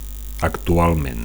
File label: "actualment", Catalan; currently; at the moment